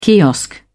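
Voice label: "kiosk", British English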